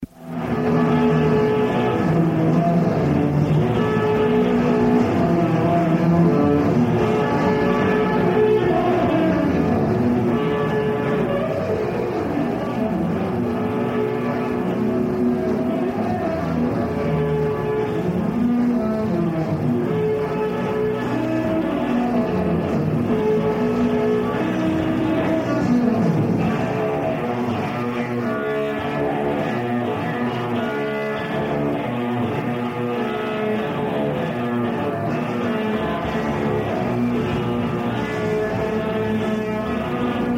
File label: Danish Radio Broadcast